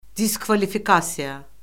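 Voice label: "diskvalifikasiya", Azerbaijani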